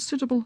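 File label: suiTable, Northern Irish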